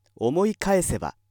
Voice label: male